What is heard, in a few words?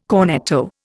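"cornetto", Indian English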